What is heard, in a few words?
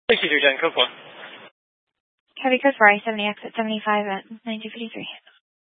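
Sheriff Dispatch, Scanner audio recording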